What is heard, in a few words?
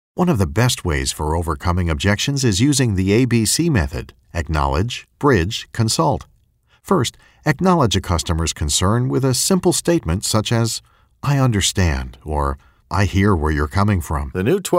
eLearning; Learning Mixdown